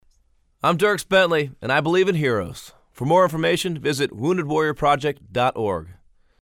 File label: liner wounded warrior; veterans day